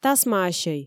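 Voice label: "тасмаасьӧй", Komi, female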